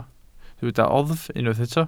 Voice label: "oddf" Welsh

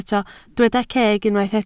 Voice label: "ceg", Welsh

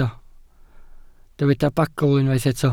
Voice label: "bagl", Welsh

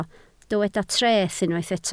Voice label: "treth" Welsh

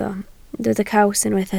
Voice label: "caws", Welsh

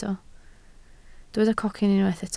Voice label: "cocyn", Welsh

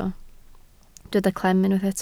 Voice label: "clem", Welsh

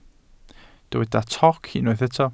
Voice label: "toc", Welsh

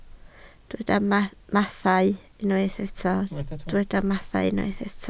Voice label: "mathau", Welsh

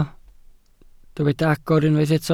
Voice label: "agor", Welsh

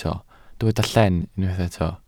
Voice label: "llen", Welsh

